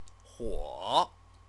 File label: "huo", Chinese; fire; noun